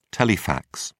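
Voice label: "telefax", British English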